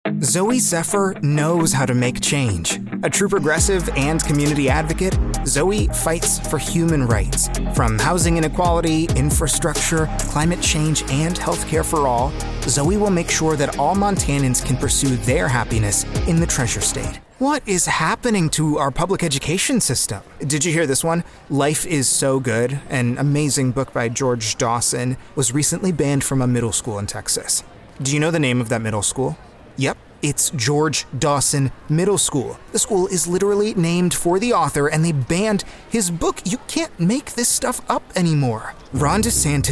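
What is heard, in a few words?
Political English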